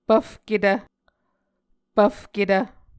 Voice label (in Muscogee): "pvfketv", Muscogee